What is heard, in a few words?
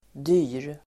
"dyr", Swedish